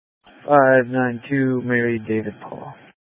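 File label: Sheriff Dispatch, scanner audio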